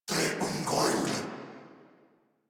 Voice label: Attack Corrupted Grineer Male; Warframe; Battle Barks

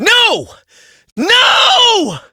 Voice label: Operator Voice, en; Warframe; New War

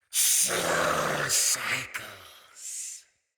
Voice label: Death Knight Male; Battle; Barks; Dialog; Mendicant

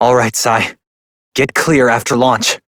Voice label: Operator Voice, en; New War

